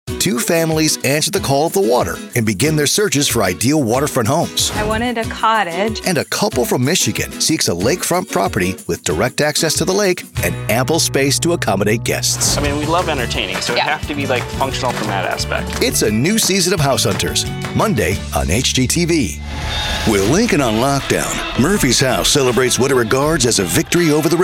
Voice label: Promo